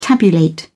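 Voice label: "tabulate", British English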